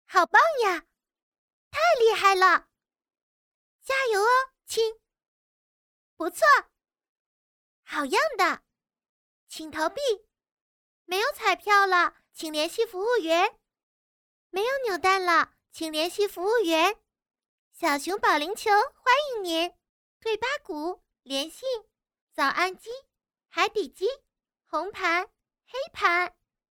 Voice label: female chinese voice over character, mandarin